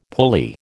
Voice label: "pulley", American

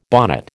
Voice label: "bonnet", American

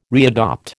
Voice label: "readopt", American

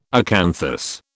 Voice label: "acanthus", British

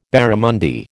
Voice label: "barramundi", American

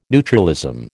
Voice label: "neutralism", American

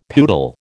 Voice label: "poodle", American

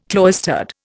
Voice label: "cloistered", Indian

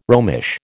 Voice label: "Romish", American

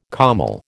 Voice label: "kÁmmel", American

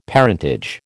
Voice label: "parentage", American

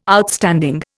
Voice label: "Outstanding", Indian

